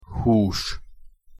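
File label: "hus", Hungarian pronunciation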